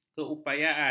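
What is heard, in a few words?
"keupayaan", Malay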